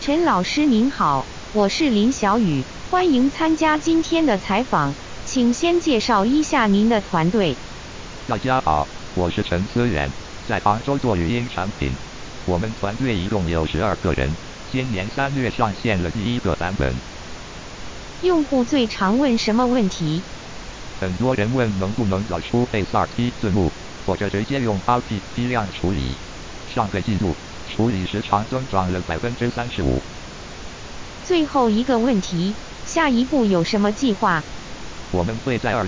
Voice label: mandarin interview noisy; chinese